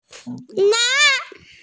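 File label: "na2", Mandarin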